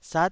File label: "sat9", taiwanese syllable